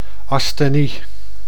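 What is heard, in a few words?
"asthenie", Dutch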